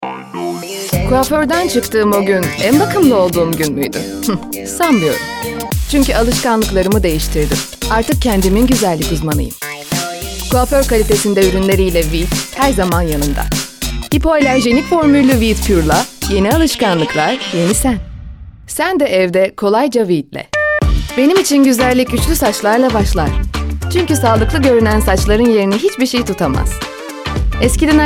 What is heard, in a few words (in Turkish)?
reklam